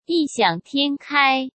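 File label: "异想天开", Mandarin Chinese; chinese-flashcards; hsk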